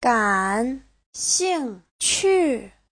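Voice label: "gǎn xìngqù", Mandarin Chinese; 感兴趣; có hứng thú, thích; Giáo trình chuẩn HSK3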